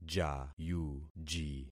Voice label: "jayuji", concat syllables; Affixing experiment